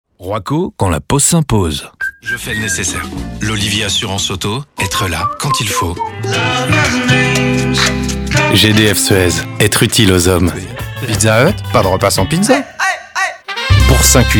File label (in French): MEDLEY SIGNATURES PUBS